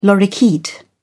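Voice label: "lorikeet", British English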